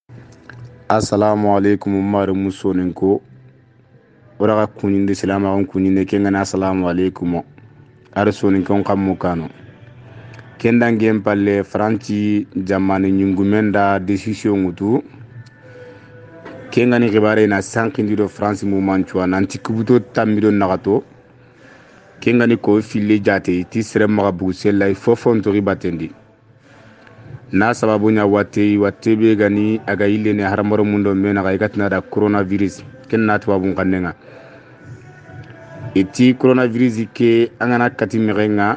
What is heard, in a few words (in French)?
soninke mess prévention covid; coronavirus